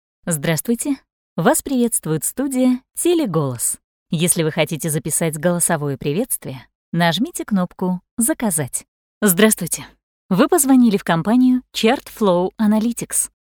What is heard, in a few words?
IVR no music